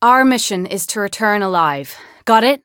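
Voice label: Voice encounter fear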